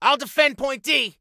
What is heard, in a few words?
Soldier Male, I'LL DEFEND